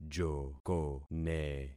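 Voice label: "jokone", concatenated syllables; Affixing experiment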